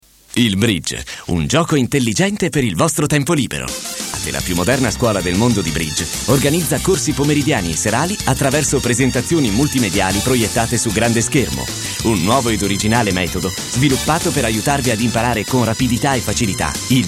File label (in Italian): SPOT SONORO; BRIDGE